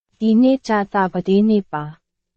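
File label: Burmese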